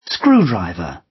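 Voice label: "screwdriver", British; Time, history; Thời gian, lịch sử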